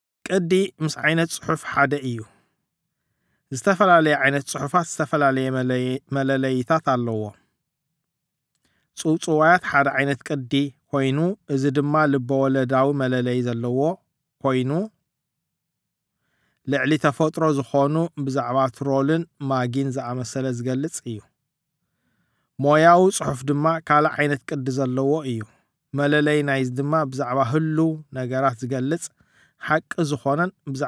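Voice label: Eritrean tigrinja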